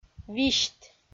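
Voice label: "висьт", Komi, female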